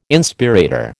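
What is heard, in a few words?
"Inspirator", American English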